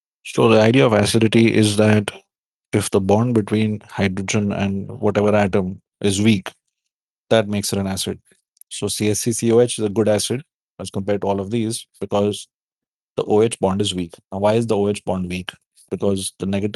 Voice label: voice message